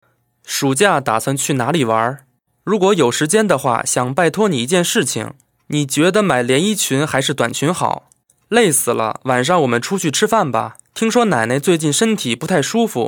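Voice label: chinese mandarin male narration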